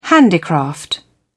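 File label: "handicraft", British English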